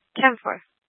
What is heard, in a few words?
Tempe PD, scanner; Rgnl Wireless Co-op (RWC)